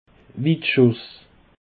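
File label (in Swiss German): "witschuss", Bas Rhin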